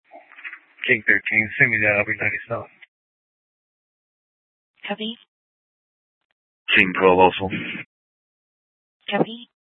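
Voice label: Mesa Police Department Superstition Dispatch; Mesa PD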